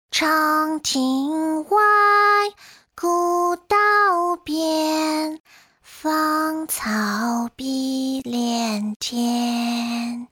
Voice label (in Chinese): 角色女童不同情绪